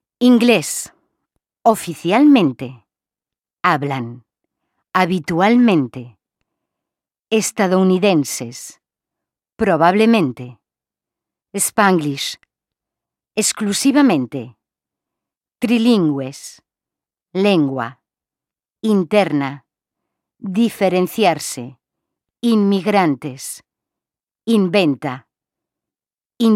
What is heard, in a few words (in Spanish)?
nasales, fonetica